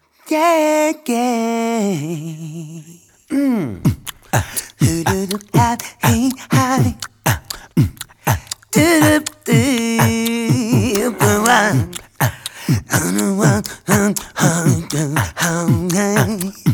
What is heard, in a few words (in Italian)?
Voce effettata, Fatso Jr